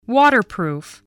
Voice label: "waterproof", spelling

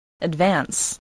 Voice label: "advance", English voice

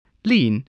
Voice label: "lean", English

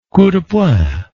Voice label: "coup de poing"

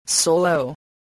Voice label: "solo", voice spelling

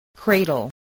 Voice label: "cradle", voice spelling

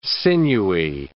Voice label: "sinewy", English

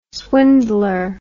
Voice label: "swindler", English word

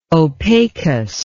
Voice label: "opacus", English

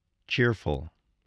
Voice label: "cheerful", spelling

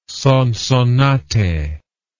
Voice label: "sonsonate", english

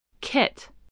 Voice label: "kit", voice

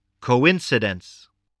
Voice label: "coincidence", voice

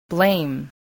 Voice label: "blame", spelling

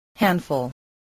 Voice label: "handful", spelling

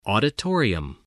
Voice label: "auditorium", english

